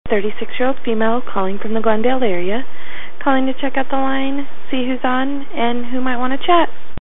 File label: Girl